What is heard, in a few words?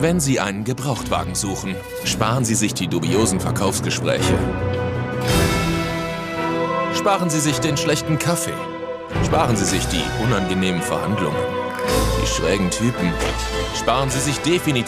DE commercial